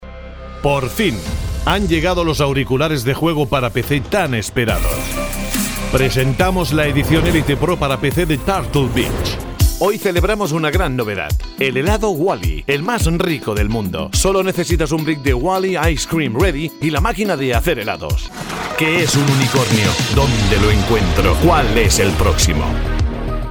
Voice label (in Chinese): 外籍西语男 宣传片